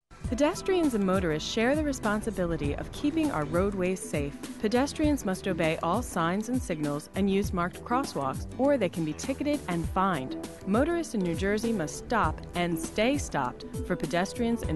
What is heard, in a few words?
Audio PSA